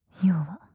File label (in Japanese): よわ・極近・右